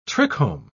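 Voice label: "trichome", British English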